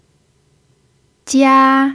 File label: "jia1", chinese